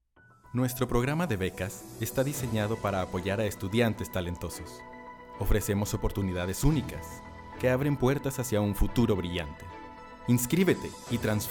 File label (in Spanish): voz institucional musicalizado